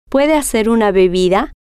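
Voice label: puede Hacer Bebida, Spanish, female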